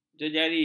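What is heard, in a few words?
"jejari", Malay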